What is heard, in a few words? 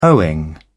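"owing", British English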